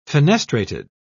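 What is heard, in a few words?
"fenestrated", English, British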